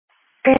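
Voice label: Police Patrol (Dispatch)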